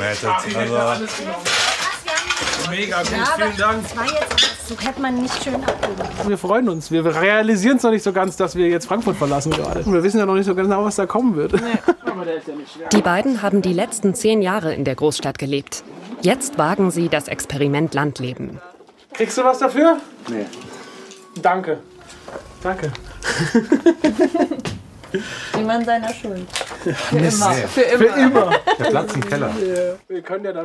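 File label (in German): Dokumentation Pioniere Kühlschrank mit Atmo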